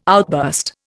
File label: "outburst", Indian